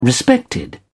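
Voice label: "respected", British English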